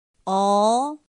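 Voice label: "ó", Chinese; pinyin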